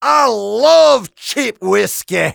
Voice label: Demo taunt wild

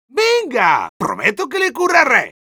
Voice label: medic taunts, es

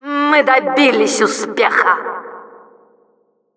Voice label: Announcer we succeeded ru

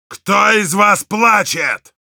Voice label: jeers, ru; Heavy; «Кто из вас плачет?»

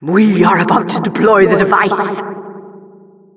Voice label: rocket warnings, announcer

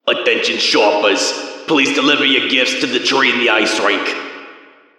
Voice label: intercom round start; "Attention shoppers, please deliver your gifts to the tree in the ice rink."

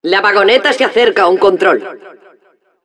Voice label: Announcer cart defender warning, es

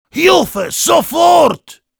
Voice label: Heavy help me, de; "Hilfe sofort!"

